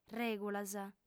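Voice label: "règulas", female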